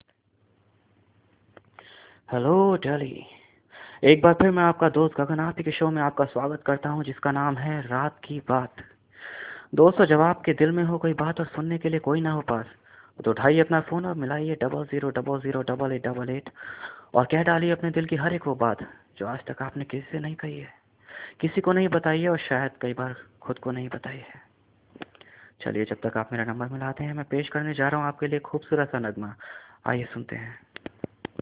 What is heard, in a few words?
Character RJ, male voice